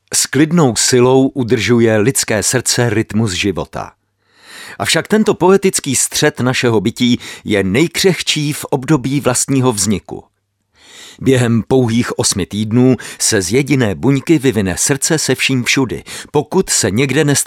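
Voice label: male Czech documentary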